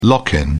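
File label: "lock in", English, British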